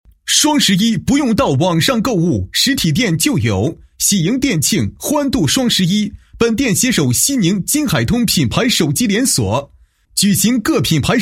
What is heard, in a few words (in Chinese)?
男 促销 手机双11促销